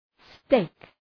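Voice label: "steak", English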